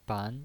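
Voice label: "ban", Mandarin Chinese, second tone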